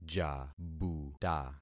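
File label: "jabuta", concatenated syllables; Affixing experiment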